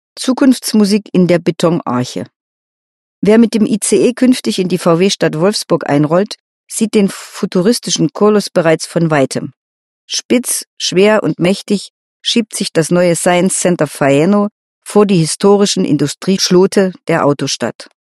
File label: german female